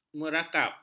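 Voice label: "merakap", Malay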